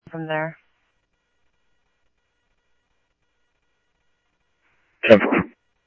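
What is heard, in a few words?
Simulcast police department, scanner audio trunk; Mesa Simulcast; MESA PD 2 Centrl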